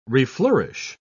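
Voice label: "reflourish", English, uk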